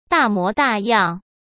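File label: "大模大样", Mandarin Chinese; chinese flashcards; hsk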